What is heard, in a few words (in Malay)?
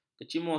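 "kecimus", Malay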